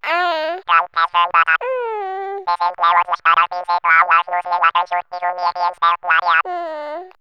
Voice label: Baby Talk, Comedy, vox; X-Static Goldmine